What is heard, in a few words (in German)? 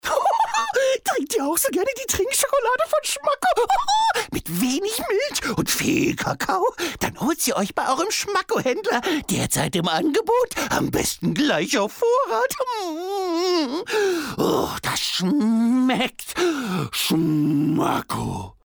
Schmacko vollkommen überdreht, Commercial (Werbung)